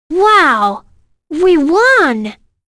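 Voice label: Vox Victory, voices heroes English; kingsraid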